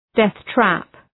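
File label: "death trap", English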